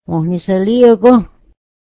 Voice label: it's a nice day, mohawk